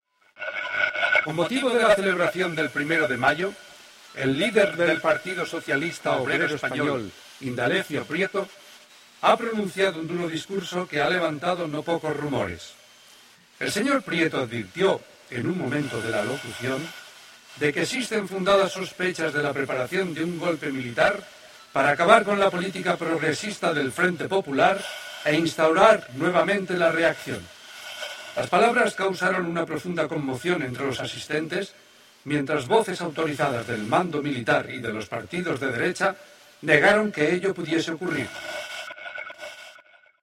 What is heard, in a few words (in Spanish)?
NOTICIA radio